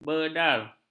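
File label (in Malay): "bedar", Malay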